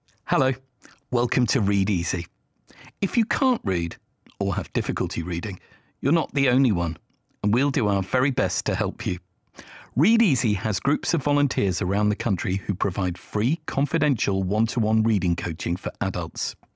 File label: Homepage Voiceover; Read Easy